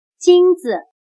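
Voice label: "金子", Chinese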